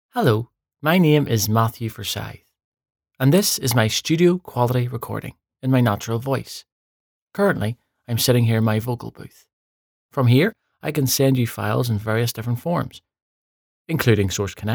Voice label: natural voice Studio Quality